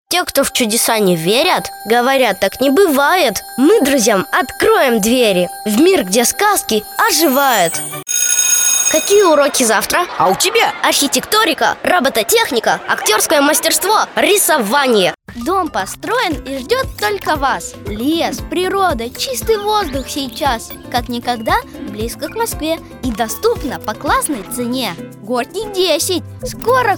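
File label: 10 years Russian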